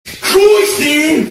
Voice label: angry polish kid

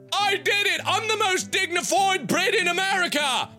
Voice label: dignified brit; markiplier

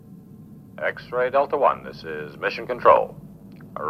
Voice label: mission control call

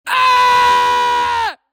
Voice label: yelling

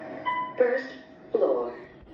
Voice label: otis lift 1st floor

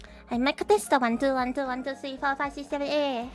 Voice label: mic test

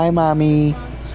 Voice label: baby voice

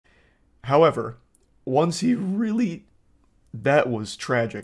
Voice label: voice crack; moist critical

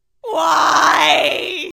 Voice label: moped couple whyyyyyyyyy; happy wheels

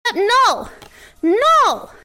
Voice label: angry no

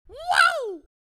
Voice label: uuuuau wow

